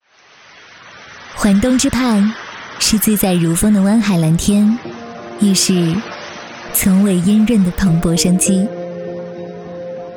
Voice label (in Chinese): 宣传片; 浪漫新城系列宣传片